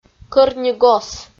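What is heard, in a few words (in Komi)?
"кӧр ни гос", Komi, female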